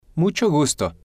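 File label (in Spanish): "mucho gusto", spanish, male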